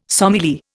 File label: "sommelier", Indian